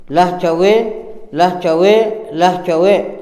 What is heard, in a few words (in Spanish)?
doce, Tojolabal; Números